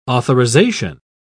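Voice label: "authorisation", British English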